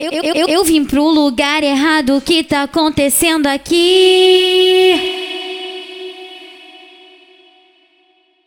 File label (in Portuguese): VOZ DOCINHO